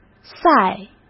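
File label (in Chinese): "赛", Mandarin; chinese-flashcards; hsk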